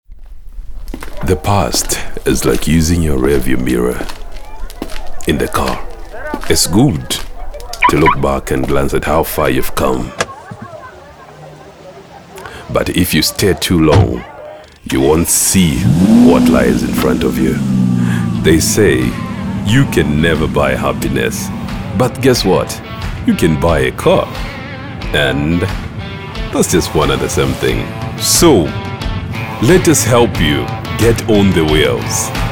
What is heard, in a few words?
CAR AD, voice-overs commercial ads